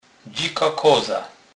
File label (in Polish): "dzika koza", kurpiow